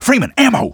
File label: ammo, male; Half-Life 2